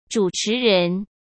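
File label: "主持人", Mandarin Chinese; chinese flashcards; hsk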